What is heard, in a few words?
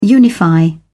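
"unify", British English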